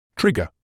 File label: "trigger", medical English